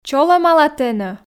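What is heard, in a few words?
чолӧмала тэнӧ, Komi, female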